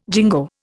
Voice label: "jingo", Indian